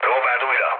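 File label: Hungarian bomb failure mood low, speech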